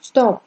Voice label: "stop", Greek

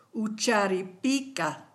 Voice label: maybe, kichwa

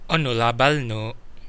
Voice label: how are you, kadazan; Onu abal nu?; Coastal Kadazan

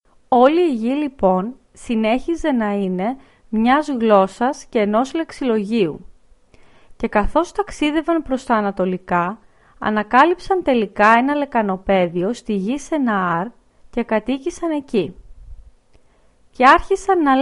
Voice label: greek

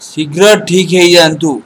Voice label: get well soon, odia; ସିଘ୍ର ଠିକ ହେଇଜାନ୍ତୁ

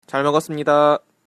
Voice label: bon appetit, Korean

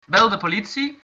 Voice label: police, dutch

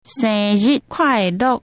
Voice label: birthday taiwanese

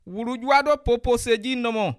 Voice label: one language, bassa

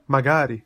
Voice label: maybe, italian

